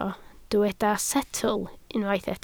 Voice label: "setl", Welsh

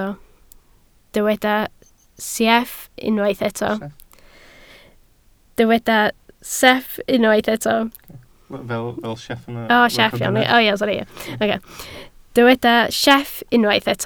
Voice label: "sieff", Welsh

